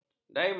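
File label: "daiman", Malay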